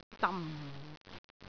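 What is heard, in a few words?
"dam4", Cantonese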